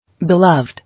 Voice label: "Beloved", English